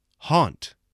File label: "haunt", English, spelling